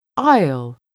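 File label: "aisle", English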